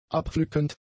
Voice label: "abpflückend", German; adjectives

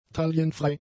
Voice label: "taillenfrei", German; adjectives

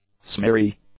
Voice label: "smeary", english; adjectives

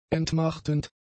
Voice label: "entmachtend", German; adjectives

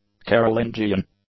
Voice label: "Carolingian", English; adjectives

